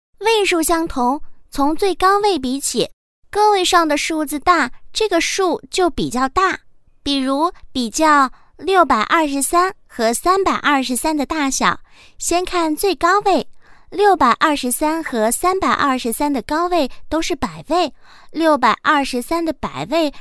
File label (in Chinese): 女-童声课件【女童声 7-8岁】